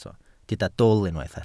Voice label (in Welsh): "dwl", Welsh